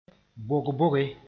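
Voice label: "bog-boge", Mooré; vaccination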